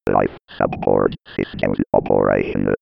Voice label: 90s computer voice